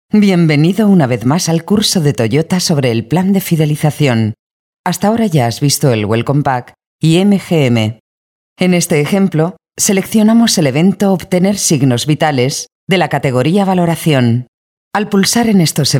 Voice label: European Spanish E-Learning, Female